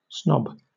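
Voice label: "snob", British English; pronunciations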